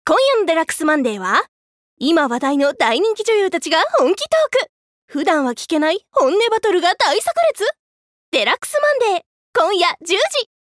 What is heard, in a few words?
Narration